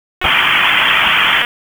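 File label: Police Northeast District Dispatch, p25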